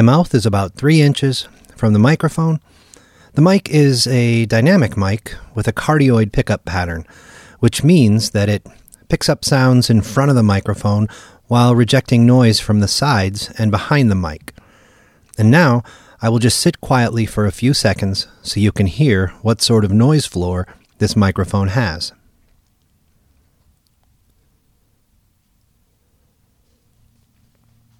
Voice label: Sennheiser Handmic Digital